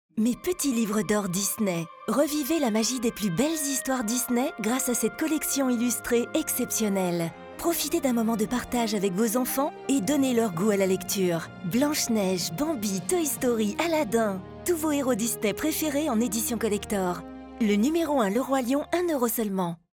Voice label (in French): Enfance enthousiaste complice; Livre D'Or Disney